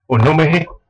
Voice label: name, west flemish; Oe noeme hy?; What your name is

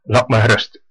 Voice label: leave me alone, west flemish

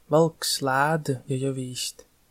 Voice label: fine, Livonian

